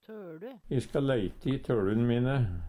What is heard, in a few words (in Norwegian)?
"tolu", Numedalsmål; Substantiv hokjønn; Personleg utstyr, klede, sko